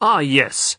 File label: ah yes announcer